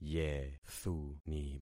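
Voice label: "yethuni", concatenated syllables; Affixing experiment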